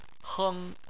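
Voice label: "heng", Chinese, first tone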